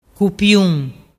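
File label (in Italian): "cupiun", dialect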